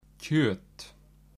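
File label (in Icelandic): "kjöt", Icelandic; matarhefti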